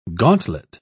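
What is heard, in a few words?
"gantlet", English, British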